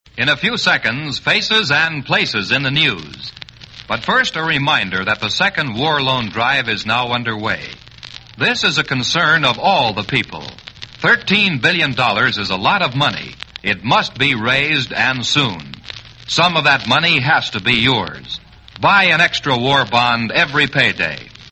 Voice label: Commercials Psa Second War Loan Drive

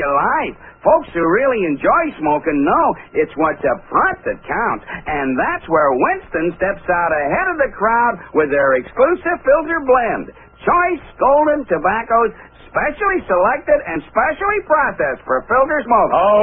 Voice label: Commercials Cigarettes; Winston; Flinstones

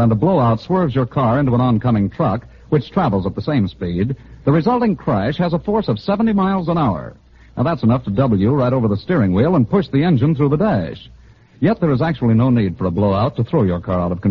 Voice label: Commercials, Goodyear Life Guard Tires

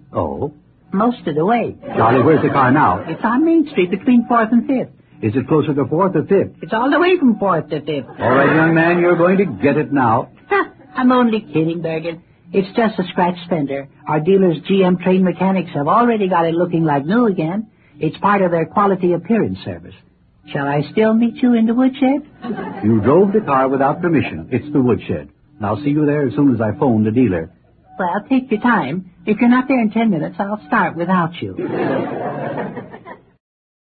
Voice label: Commercials, G M Gardian Maintenance

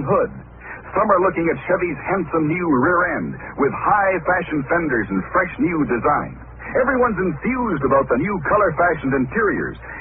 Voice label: Commercials, Chevrolet

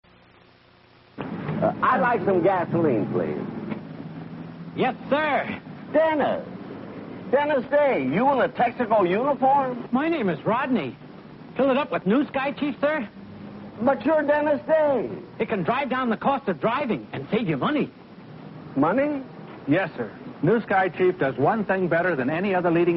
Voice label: Commercials; Texaco

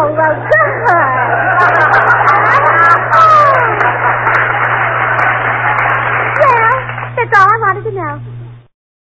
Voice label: Commercials, Defense Stamps And War Bonds; Gracie Allen

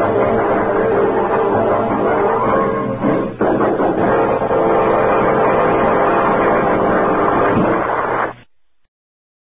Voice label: Commercials, Chiquita Banana

